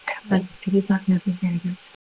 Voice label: Voice Acting es